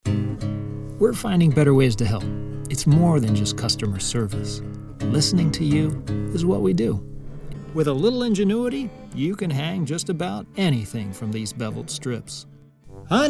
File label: Commercial